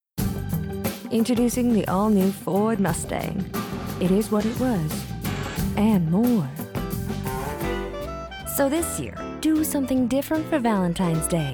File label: Voiceover